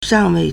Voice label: "shang4wei4", Chinese

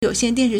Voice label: "you3 xian4 dian4 shi4", Chinese

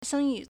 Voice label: "sheng1yi4", Chinese

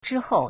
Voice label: "zhi1hou4", Chinese

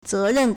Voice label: "ze2ren4", Chinese

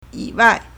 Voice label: "yi3wai4", Chinese

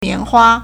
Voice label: "mian2hua1", Chinese

